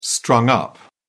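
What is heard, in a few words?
"strung up", British English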